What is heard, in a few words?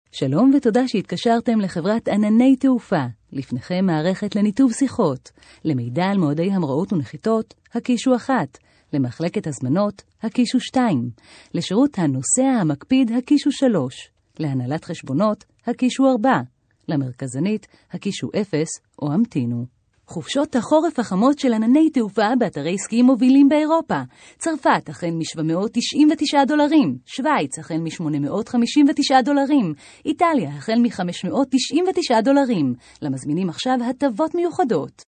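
Hebrew IVR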